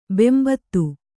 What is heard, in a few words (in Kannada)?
"ಬೆಂಬೂದಿ", kannada